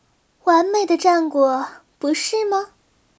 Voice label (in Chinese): 胜利, BattleCry; ycrpg; 薇薇安